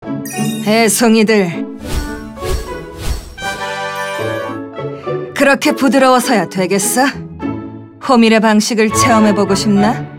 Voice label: 중성적인 거친 30대; 쿠키런킹덤; 호밀맛쿠키

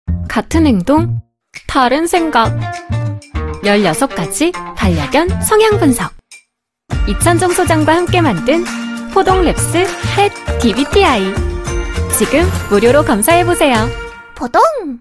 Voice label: 부드러움 밝음

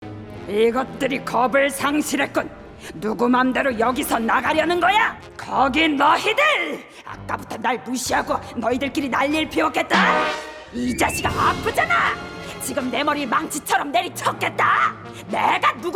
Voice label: 20대 여자 화가 많은 뚱뚱한 자존심 센

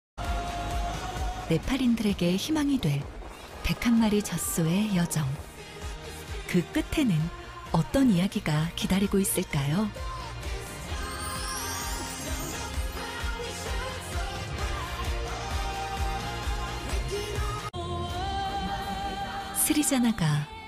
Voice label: 일반인 자연스러운

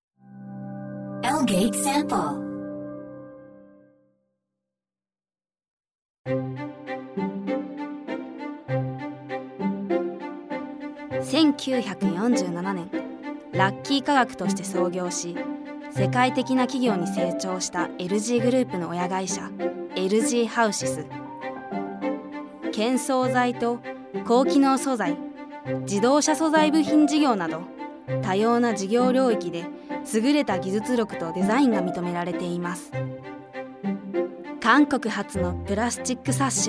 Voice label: 일본 여 기본톤

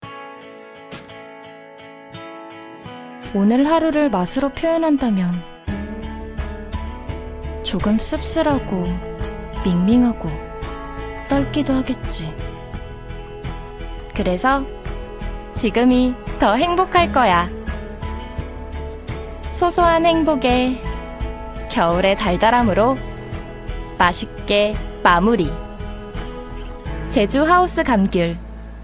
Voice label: 광고 CM 따뜻한 20대; 제주하우스감귤

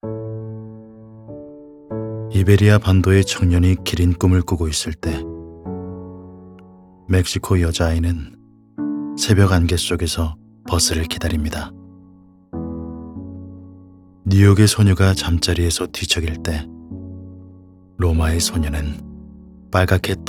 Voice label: 담담하고 희망적인; 네스카페

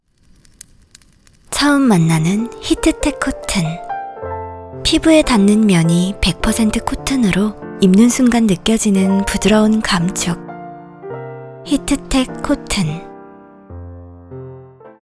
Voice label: 잔잔하고 속삭이는 톤; 유니클로

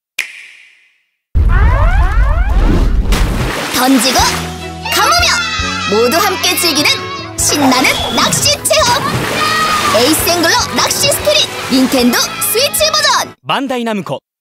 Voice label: 활기찬 남아 10대, 한국어판 CM; Ace Angler; 낚시 스피릿; Nintendo Switch 버전

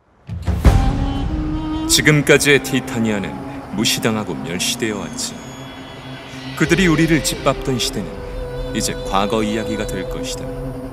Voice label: 캐릭터 PV 사악한 20대; 제국의 매; 흑매왕

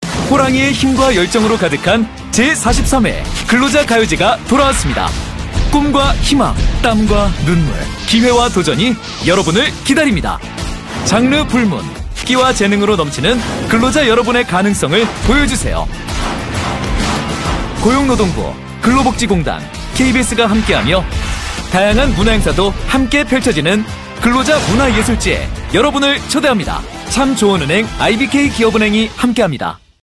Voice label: 희망차고 젊은 느낌의 광고

